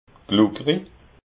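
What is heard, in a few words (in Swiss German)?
"glukeri", Alsatian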